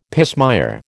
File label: "pismire", American